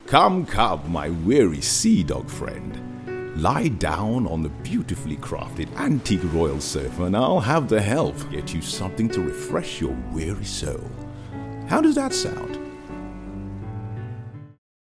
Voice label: English British TV shows